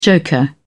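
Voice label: "joker", British English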